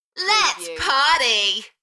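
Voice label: British female Lets party Enthusiastic; beanstalk audio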